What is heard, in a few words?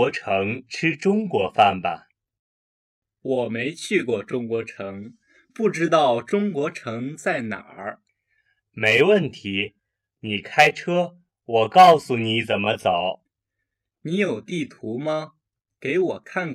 lesson dialogue slow